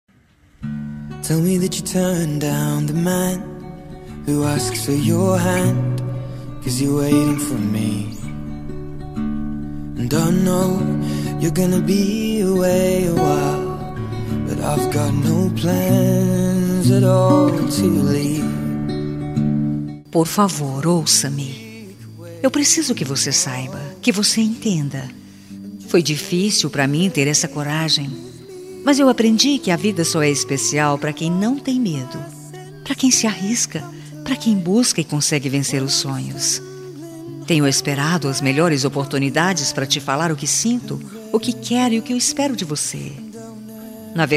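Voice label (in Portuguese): MENSAGEM DE CONQUISTA female, Telemensagem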